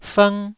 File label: "fng1", taiwanese syllable